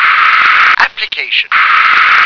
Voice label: "application", English